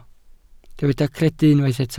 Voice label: "credu" Welsh

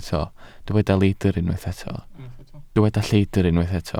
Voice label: "lleidr" Welsh

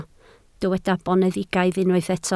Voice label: "boneddigaidd", Welsh

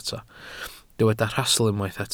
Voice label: "rhastl" Welsh

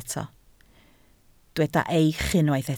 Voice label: "eich", Welsh

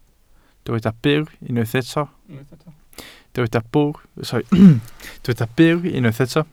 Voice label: "byr", Welsh

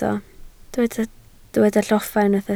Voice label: "lloffa", Welsh

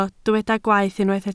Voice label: "gwaeth" Welsh

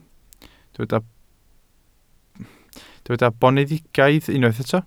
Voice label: "boneddigaidd", Welsh

